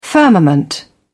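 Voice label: "firmament", British English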